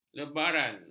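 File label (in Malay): "lebaran", Malay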